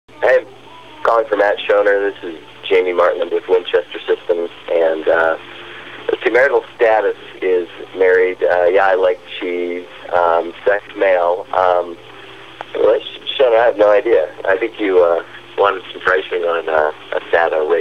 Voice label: Voicemails